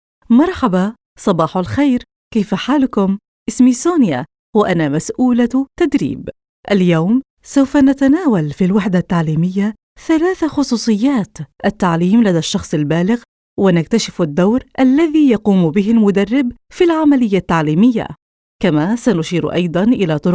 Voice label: arabic e-learning